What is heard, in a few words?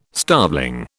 "starveling", British